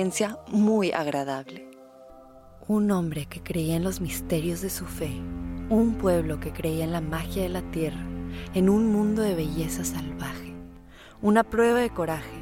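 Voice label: Latin American Spanish, General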